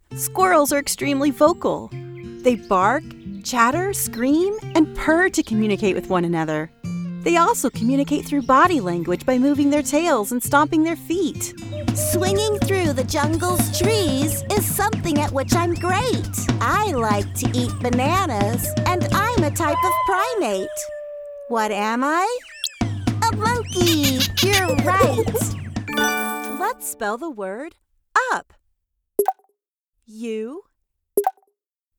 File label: k12 Narration